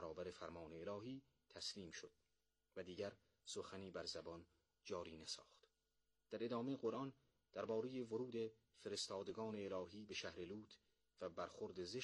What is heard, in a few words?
Dari